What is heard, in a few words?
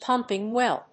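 "pumping well", English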